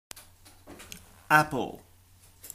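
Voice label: "apple", British English; PictureDictionary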